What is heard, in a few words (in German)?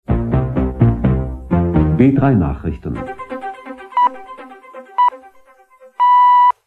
nachrichten, jingles